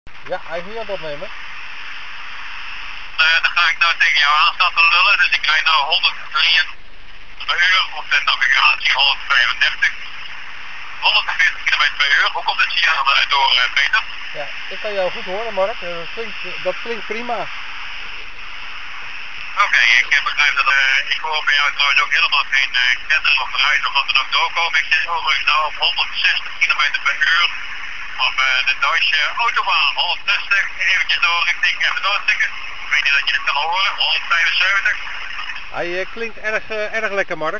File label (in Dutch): Sound Check Telefoon; BMW K1300S; Bike-to-Bike